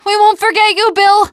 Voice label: teengirl, voice; c6 dlc3 bill dies